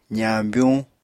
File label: "zañbyuñ", Apatani